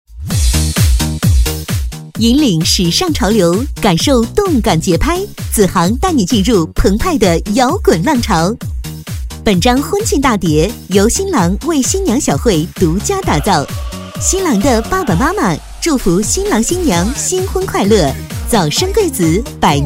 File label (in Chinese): 女 促销 婚庆广告